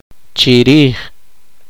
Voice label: poqomchi

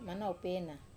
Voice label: "manau pena", Wamesa

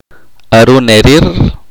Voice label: "contar una historia", chorti

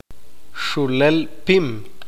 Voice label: "insecto", Q'eqchi'

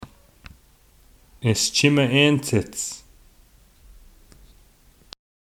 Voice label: Tahltan My Son You Eat

